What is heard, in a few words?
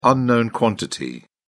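"unknown quantity", English, British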